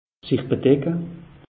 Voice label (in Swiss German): "bedecke", alsace; meteo